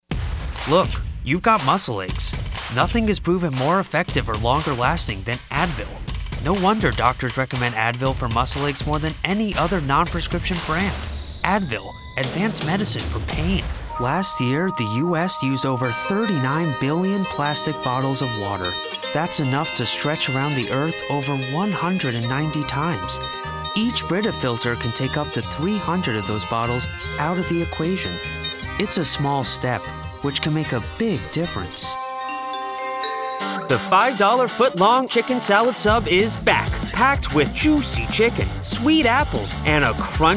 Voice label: Commercial VO

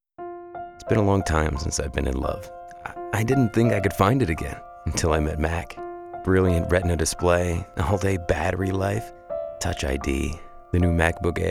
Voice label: English

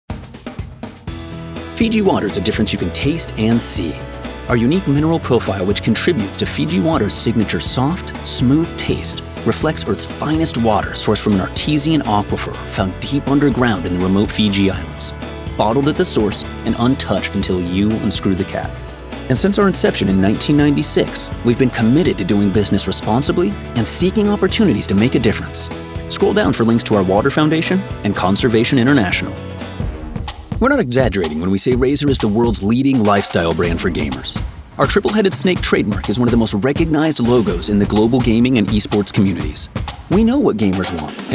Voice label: EXPLAINER

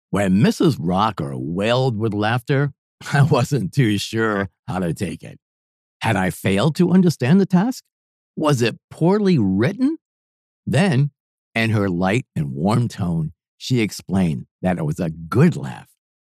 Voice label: Audiobook; The Messy House

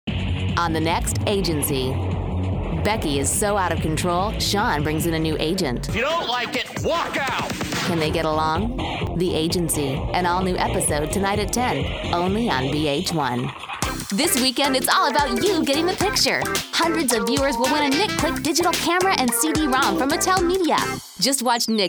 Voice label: Promo

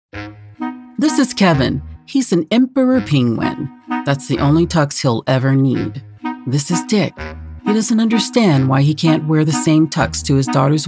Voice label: E LEARNING

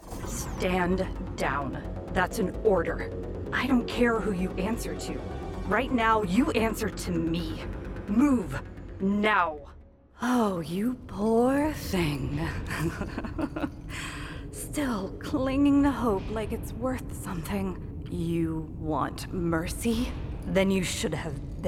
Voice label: Character; English - USA

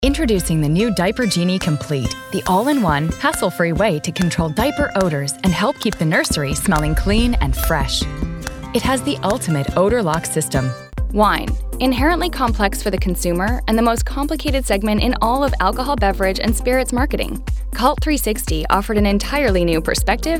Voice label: Corporate

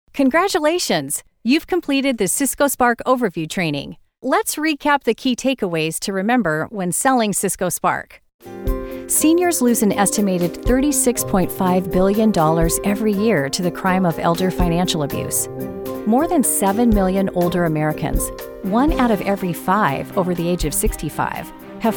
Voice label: eLearning

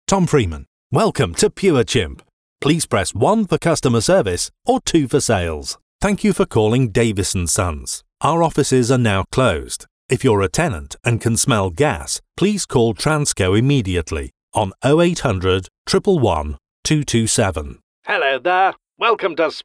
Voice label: Telephony

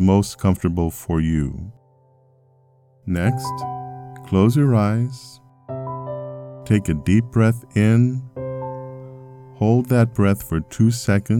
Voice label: guided meditation

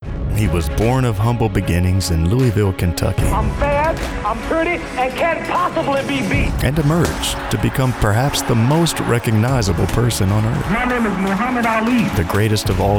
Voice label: Trailer Promo Reel

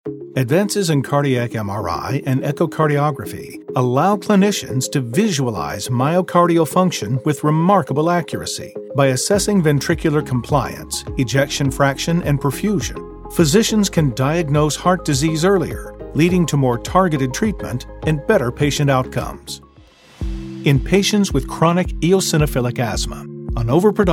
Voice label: Medical Narration

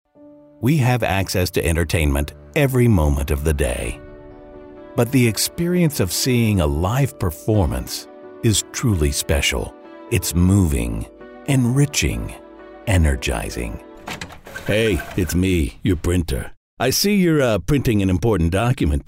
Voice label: Commercial Voiceover

